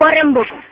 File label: Scolding Tamil